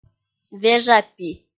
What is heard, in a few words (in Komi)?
"вежапи" kpv f